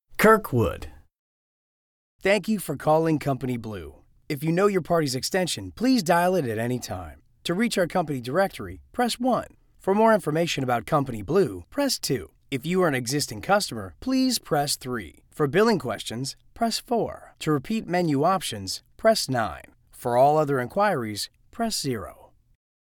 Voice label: IVR Phone Prompt